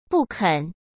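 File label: "不肯", Mandarin Chinese; chinese-flashcards; hsk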